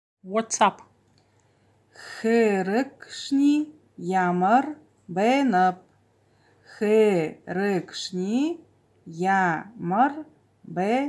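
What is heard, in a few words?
Buryat What's Up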